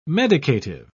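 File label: "medicative", English, British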